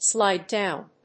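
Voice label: "slide down", English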